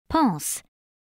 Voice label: "pulse" w

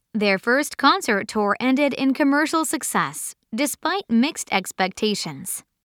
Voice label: commercial

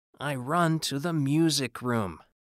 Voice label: Read

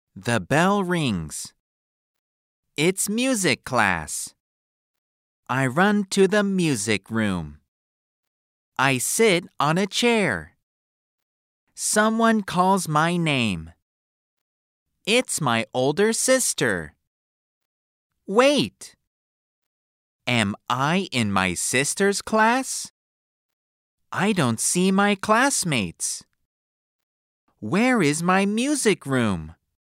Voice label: Read

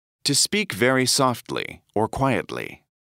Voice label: whisper

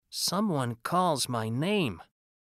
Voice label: Read

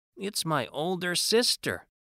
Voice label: Read

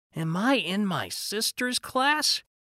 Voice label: Read

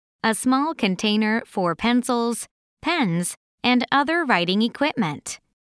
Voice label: "pencil case" m

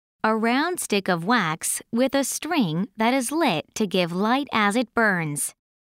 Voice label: "candle" m

